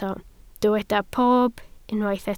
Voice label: "pob", Welsh